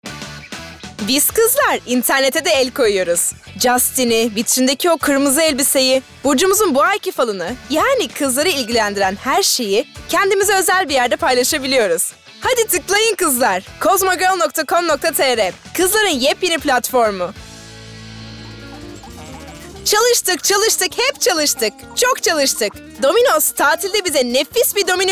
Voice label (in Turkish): REKLAM